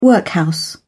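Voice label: "workhouse", British English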